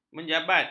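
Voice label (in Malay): "menjabat", Malay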